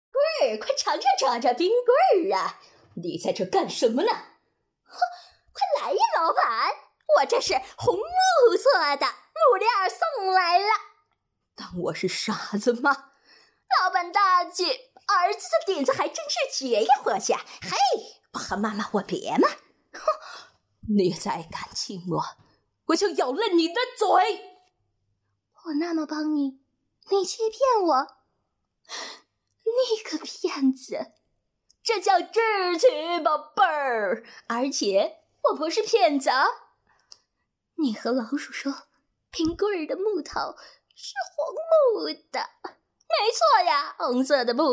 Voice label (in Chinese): 流氓试音